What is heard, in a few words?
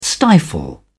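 "stifle", British English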